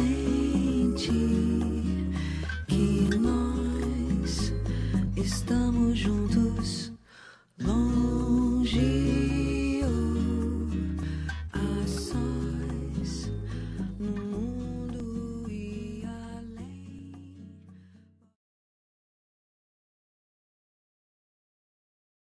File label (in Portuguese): Romântica Eu Gosto de Você